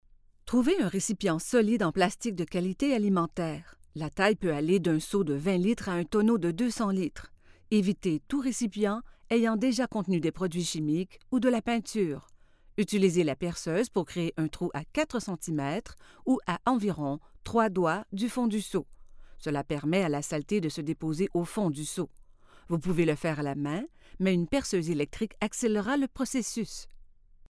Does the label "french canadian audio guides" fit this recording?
Yes